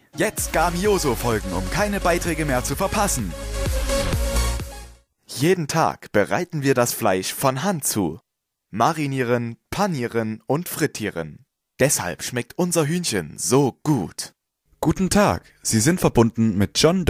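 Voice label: German, male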